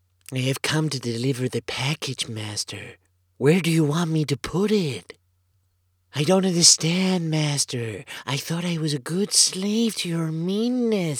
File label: Character Voice